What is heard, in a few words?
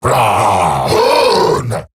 Ability Short Cast Eximus Grineer Male; Battle Barks